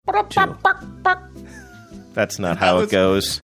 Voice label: failed attempt recreating marsupial gurgle sound saying that's not how it goes